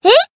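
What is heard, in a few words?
voice attack Japanese; leviachan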